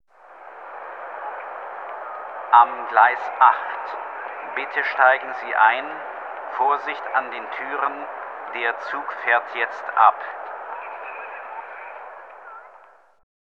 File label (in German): Bahnhof Durchsage